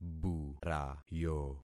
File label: "burayo", concat syllables; Affixing experiment